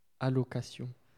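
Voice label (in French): "allocation" French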